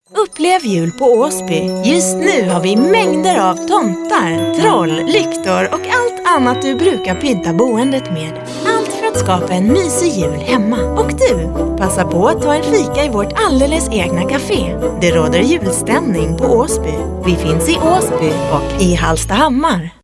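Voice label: Swedish, female, commercial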